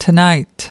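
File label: "tonight", English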